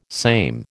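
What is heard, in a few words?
"same", American English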